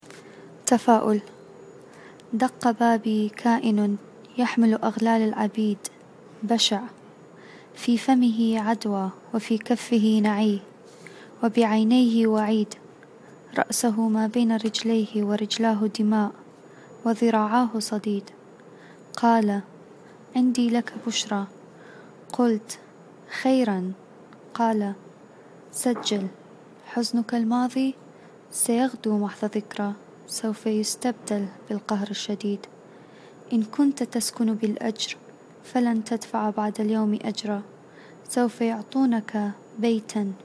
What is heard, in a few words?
optimism arabic; city of nonexistence